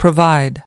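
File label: "Provide", American English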